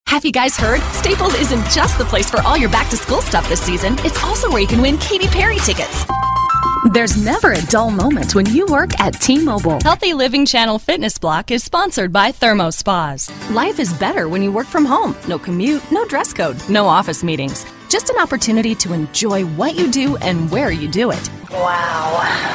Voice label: Commercial